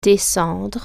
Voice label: go down, french

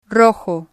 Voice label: "rojo", Spanish

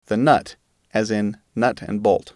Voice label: "nut as in bolt", English

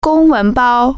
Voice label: "briefcase", Chinese

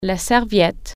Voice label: "briefcase", French

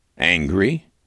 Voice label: angry

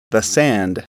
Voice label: "sand", English

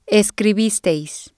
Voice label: "escribisteis", Spanish

